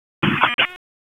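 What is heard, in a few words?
Sheriff's Office Dispatch; WSO DISP 1; wake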